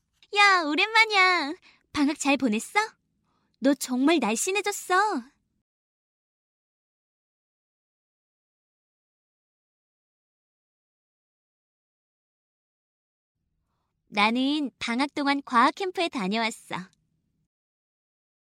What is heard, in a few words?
won korean